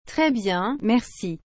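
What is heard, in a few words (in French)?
"Très bien merci", French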